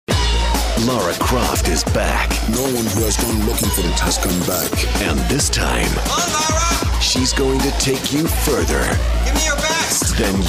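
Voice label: character, voices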